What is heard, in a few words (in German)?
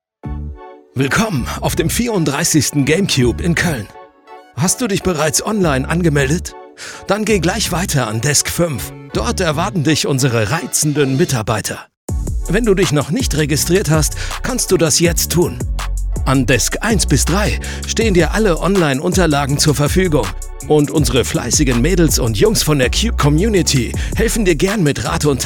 ansage intro; game-cube